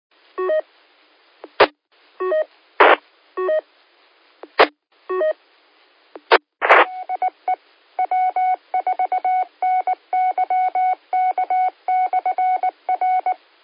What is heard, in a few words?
qso rec repeater logic